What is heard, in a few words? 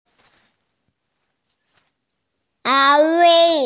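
"ān wèi", Mandarin Chinese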